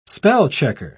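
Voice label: "spell-checker", English, British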